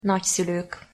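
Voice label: "nagyszulok", Hungarian pronunciation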